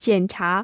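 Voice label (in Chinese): "检查" Chinese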